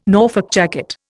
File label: "Norfolk jacket", Indian